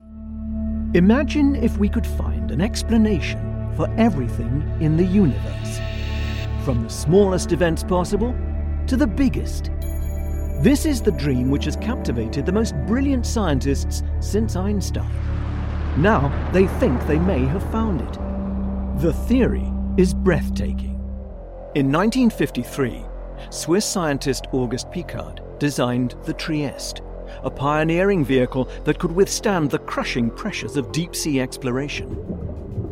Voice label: Narration

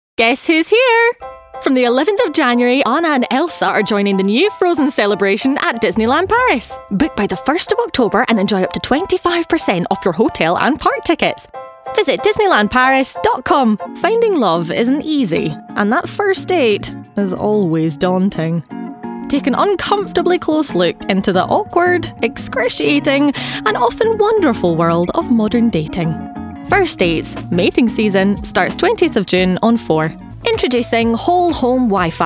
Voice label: Commercial

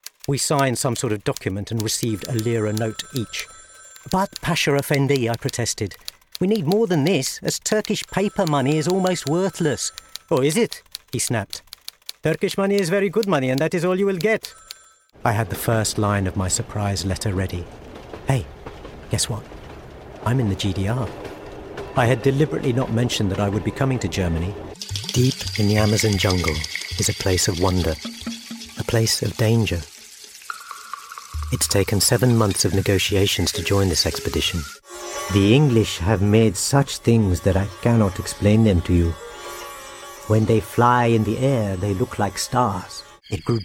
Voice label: Narration

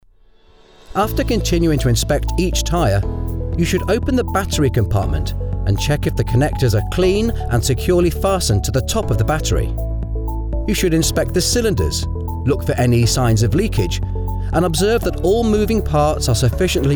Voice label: Corporate Reel

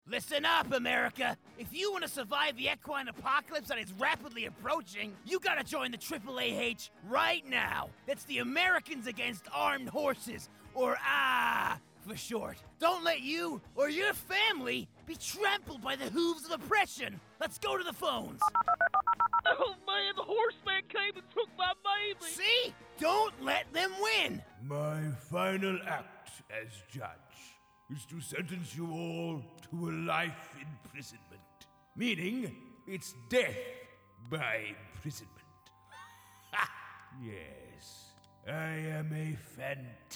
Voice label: Animation

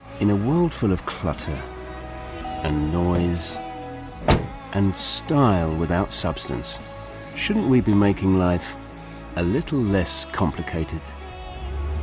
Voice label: Commercial

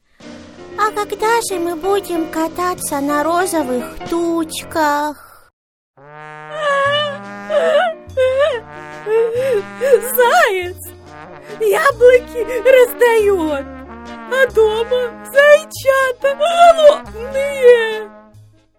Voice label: RUSSIAN Cartoon Voices

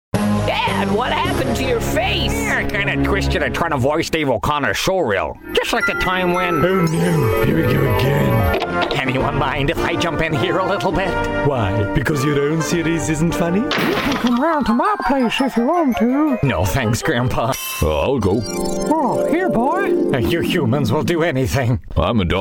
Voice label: Character

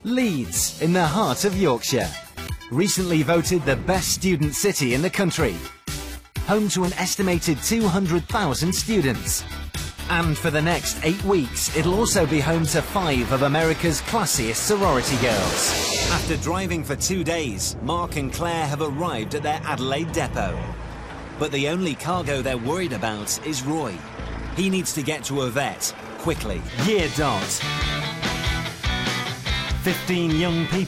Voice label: Narration

